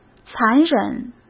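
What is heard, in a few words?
"残忍", Mandarin Chinese; hsk; chinese-flashcards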